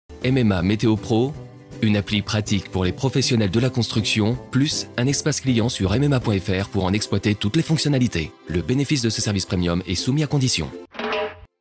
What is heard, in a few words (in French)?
motion design meteo, voix off homme; mma meteo pro